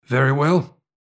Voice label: Neutral Yes; Civ5; Washington

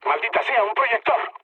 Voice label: searchlite, mood med, speech; War Thunder

